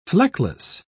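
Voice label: "fleckless", British English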